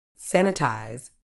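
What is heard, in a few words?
"sanitise", medical English, British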